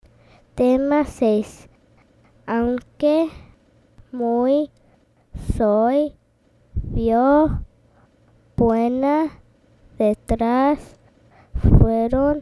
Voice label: High Frequency Spanish